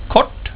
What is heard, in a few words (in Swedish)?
"kort", swedish; word of day